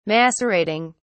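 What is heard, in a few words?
"macerating", British English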